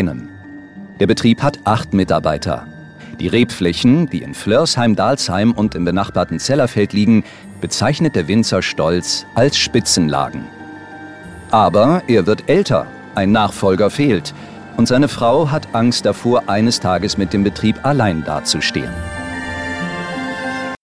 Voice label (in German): Doku Kommentar Weingut; LOFT VOICES